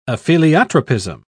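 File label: "apheliotropism", British English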